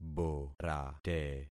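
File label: "borate", concatenated syllables; Affixing experiment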